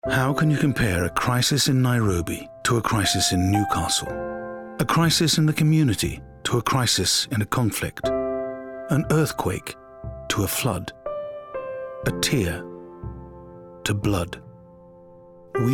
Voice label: Announcer, Gentle, Neutral RP; RED CROSS